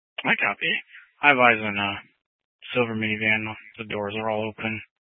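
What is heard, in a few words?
Police Dispatch, scanner audio recording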